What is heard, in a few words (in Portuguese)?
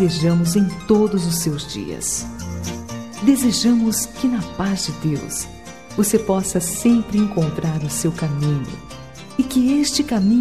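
Feliz Natal, female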